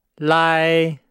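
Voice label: "lai", Chinese; Nihao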